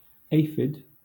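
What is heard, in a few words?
"aphid", British English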